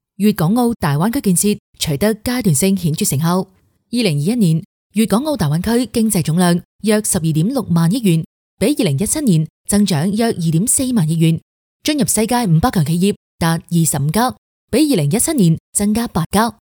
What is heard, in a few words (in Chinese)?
女 粤语 专题解说 新闻; 大湾区新闻